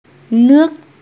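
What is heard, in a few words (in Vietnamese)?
"nước", tiếng việt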